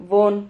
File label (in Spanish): "von", Silabas